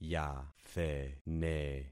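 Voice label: "yathene", concatenated syllables; Affixing experiment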